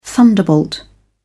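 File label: "thunderbolt", British English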